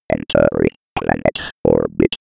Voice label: old computer voice